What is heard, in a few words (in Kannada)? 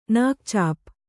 "ನಾಕ್ಚಾಪ್", Kannada